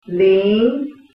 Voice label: mandarin